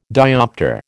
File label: "dioptre", American